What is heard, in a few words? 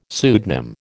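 "pseudonym", American English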